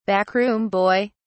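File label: "backroom boy", British English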